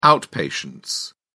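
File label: "outpatients", British English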